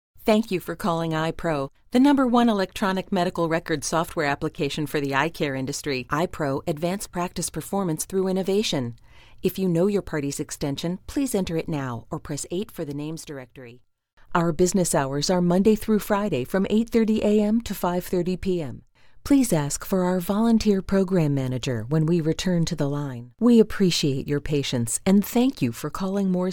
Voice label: Telephony